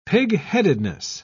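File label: "pigheadedness", British English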